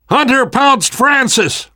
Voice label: hunter pounced, survivor voice namvet; Left 4 Dead 2; left4dead2 dlc3